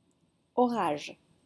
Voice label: "orage", French

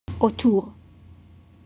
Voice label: "autour", French

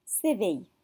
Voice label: "séveille", French

